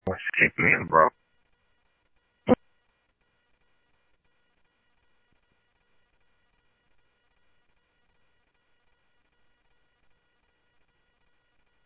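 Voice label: Mesa Police Department, scanner audio; Mesa Simulcast; MESA PD 1 Fiesta MesaPoliceDep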